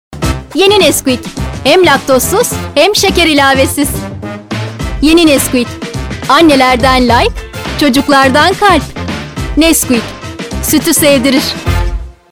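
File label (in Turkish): reklam, Turkish; nesquik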